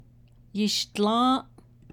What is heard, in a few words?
navajo, drink it 1st person singular; yishdlą́ą́’